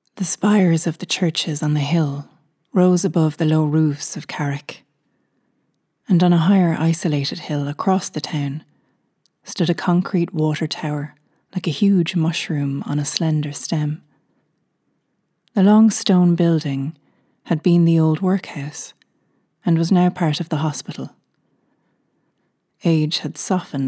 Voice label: female irish and usa irish accent audiobook; irish southern